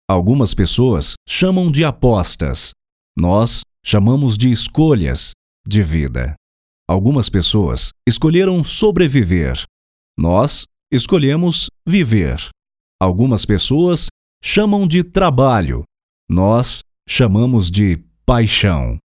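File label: Narration, Portuguese Brazil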